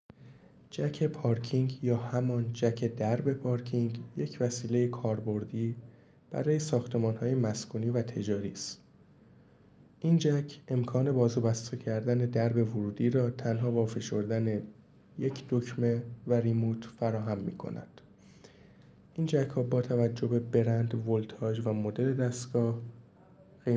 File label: voice msg